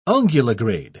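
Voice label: "unguligrade", British English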